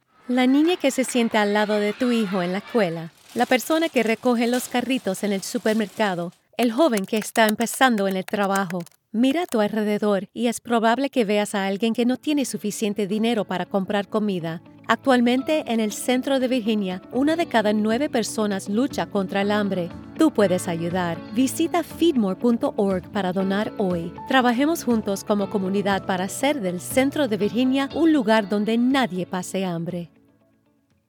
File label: Spanish Commercial